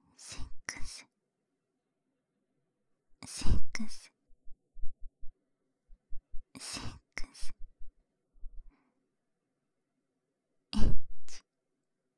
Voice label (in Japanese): 彼女 囁き淫語連呼; 彼女ver